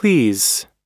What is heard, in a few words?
"please", us